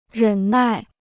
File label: "忍耐", Chinese